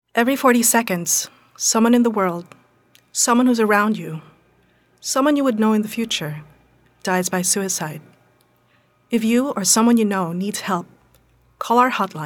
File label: English (Concerned, Hopeful)